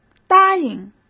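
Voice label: "答应", Mandarin Chinese; chinese-flashcards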